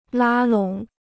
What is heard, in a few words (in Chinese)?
"拉拢", Chinese